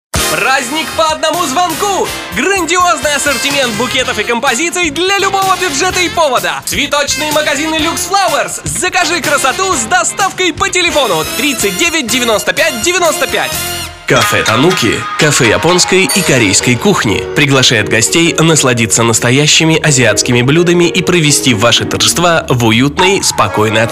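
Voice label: rus roliki, Russian commercials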